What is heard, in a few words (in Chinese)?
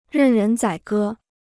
"任人宰割", Chinese